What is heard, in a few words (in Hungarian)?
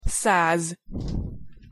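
"száz", Hungarian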